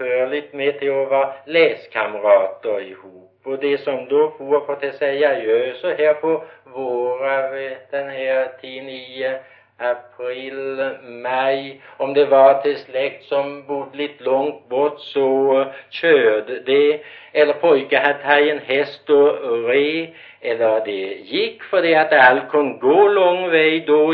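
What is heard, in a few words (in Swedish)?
Gårdby Öland, dialekter; Folkminnen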